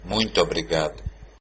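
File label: thanks, Brazilian Portuguese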